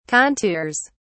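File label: "contours", British English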